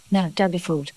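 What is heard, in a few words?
voice acting, es, female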